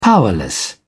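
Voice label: "powerless", English, British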